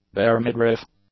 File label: "bare-midriff", English; adjectives